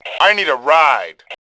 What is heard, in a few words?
need ride, Male; Tribes2